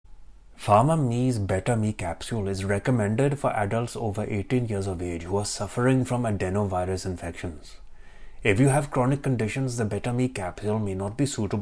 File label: Technical Indian; PharmaMe